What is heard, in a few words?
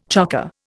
"chocker", Indian